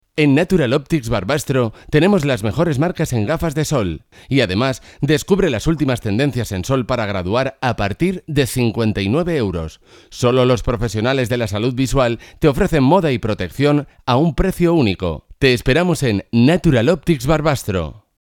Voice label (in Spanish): locutor spain